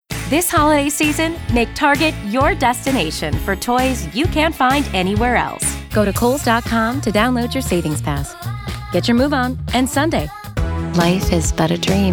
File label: Commercial